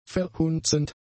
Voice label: "verhunzend", German; adjectives

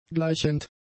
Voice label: "gleichend", German; adjectives

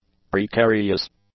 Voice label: "precarious", english; adjectives

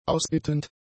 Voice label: "ausbittend", German; adjectives

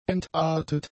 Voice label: "entartet", German; adjectives

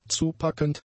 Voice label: "zupackend", German; adjectives

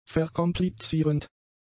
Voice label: "verkomplizierend", German; adjectives

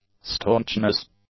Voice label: "staunchness", english; nouns

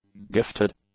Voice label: "gifted", english; adjectives

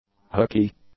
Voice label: "hooky", English; adjectives

